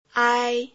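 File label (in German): Phoneme, chinesisch; ai 1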